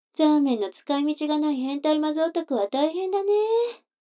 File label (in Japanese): 女の子 台詞